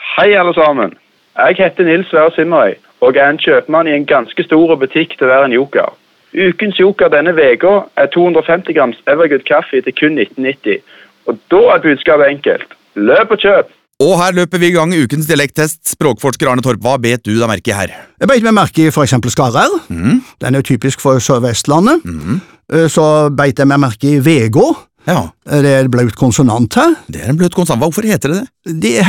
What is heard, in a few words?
radiospots